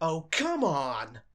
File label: start, voices angry pursuit; DanisRace